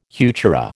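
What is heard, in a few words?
"heuchera", American English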